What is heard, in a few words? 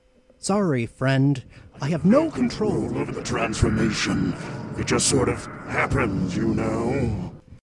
Demon Voice Test